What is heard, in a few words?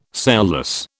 "sailless", British